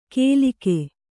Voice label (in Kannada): "ಕೇಲಿಕೆ", Kannada